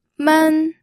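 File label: "men", first tone, pinyin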